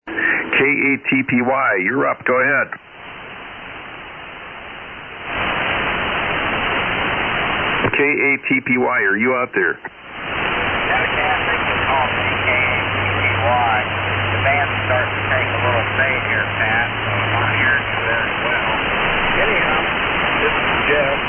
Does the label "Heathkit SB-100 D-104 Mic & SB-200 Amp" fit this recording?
Yes